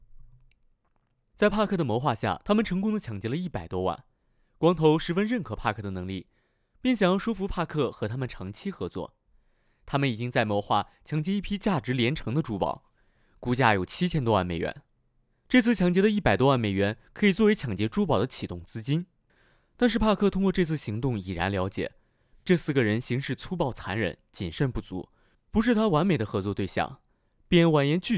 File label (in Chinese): 电影解说 男